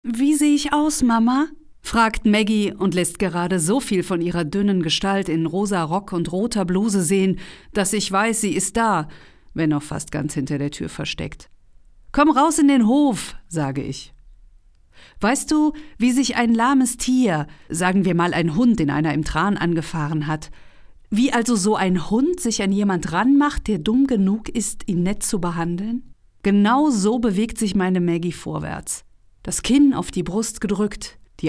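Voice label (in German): Hörbuch; alice walker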